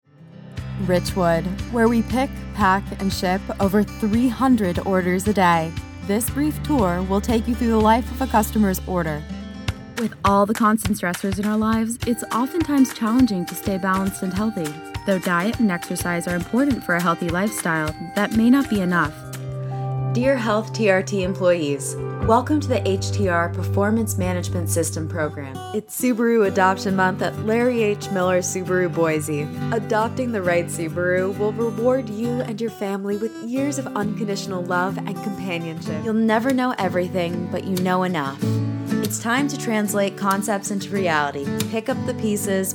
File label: Video Narration